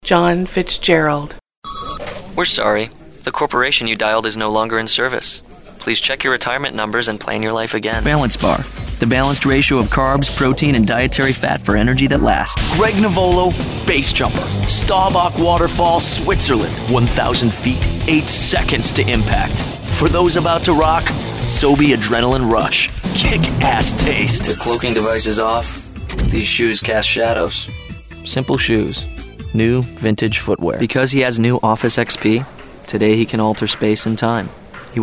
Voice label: male voice